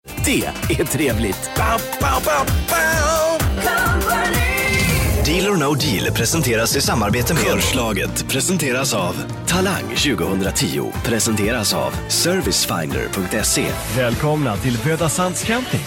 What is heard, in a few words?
Swedish voice Swedish commercial